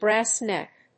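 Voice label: "brass neck", English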